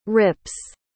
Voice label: "rips", pronounce